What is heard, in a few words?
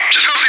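Russian loud; Wingman; DCS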